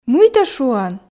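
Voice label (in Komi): мый тэ шуан, Komi, female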